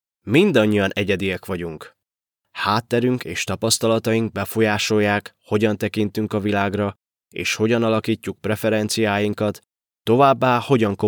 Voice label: male hungarian voice over talent narrative

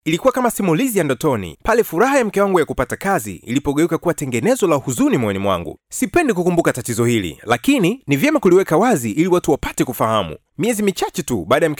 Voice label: male swahili voice over talent upbeat

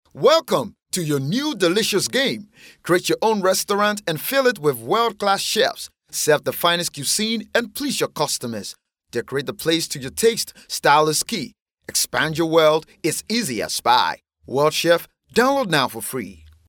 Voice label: male african voice over upbeat, african english

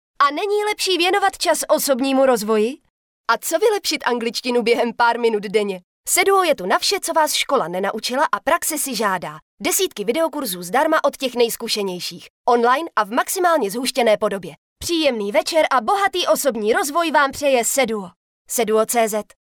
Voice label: female czech voice over sales

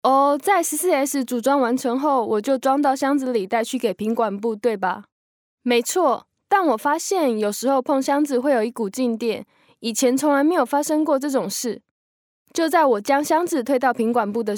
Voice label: female hokkien voice over narrative

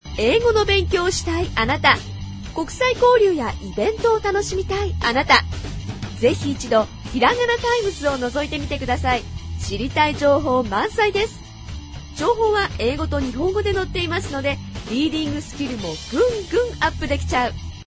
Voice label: female japanese voice over sales

